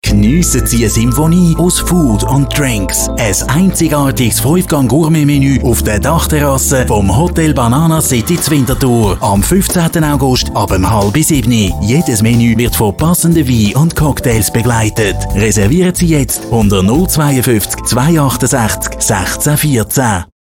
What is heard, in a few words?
radio; bananacity